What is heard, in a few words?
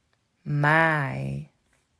"Maai", Dutch